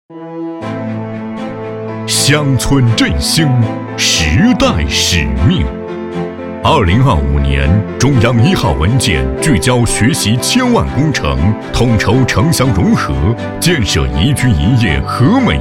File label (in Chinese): 男 党政宣传 乡村振兴